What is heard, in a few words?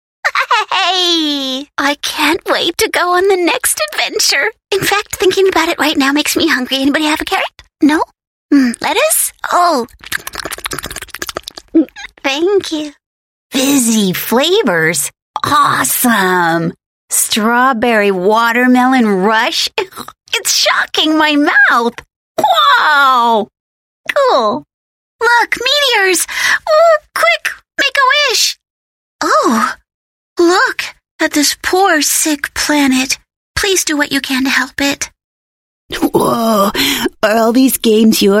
US English Child Voices; Female